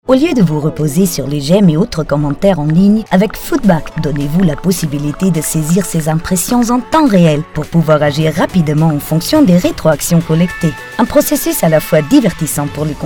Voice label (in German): produktfilm franzoesisch; foodback; Muttersprache Deutsch